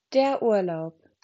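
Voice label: "Urlaub", German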